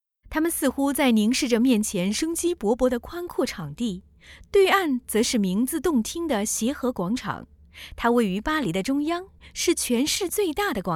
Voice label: narration

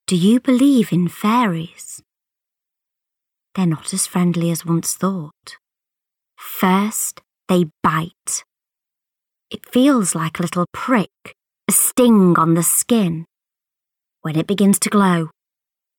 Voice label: audiobook

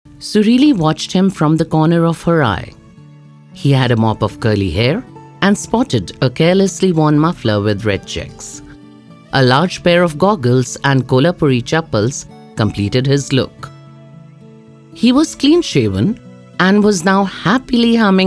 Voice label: audio book english

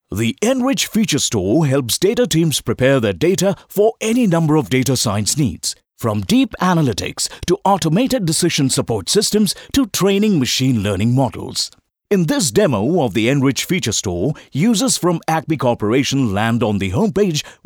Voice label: ENGLISH Enrich Customer VDO

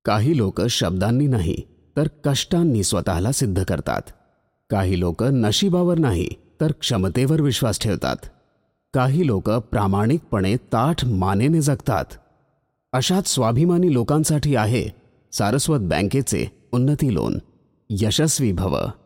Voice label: Cinema MVO Marathi; Saraswat Bank

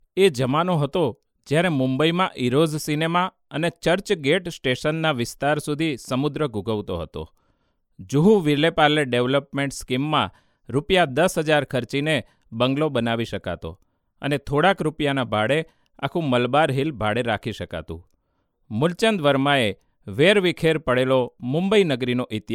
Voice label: narration gujarati

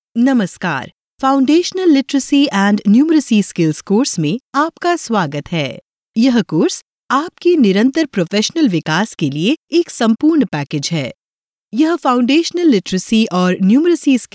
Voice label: E-learning Hindi

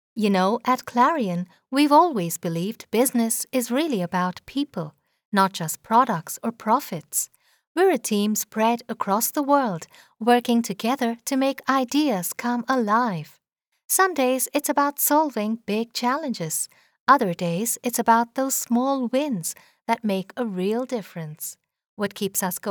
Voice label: Corporate Easy US English

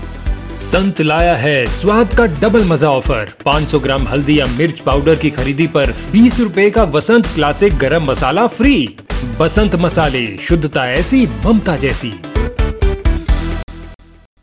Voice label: tvc HINDI

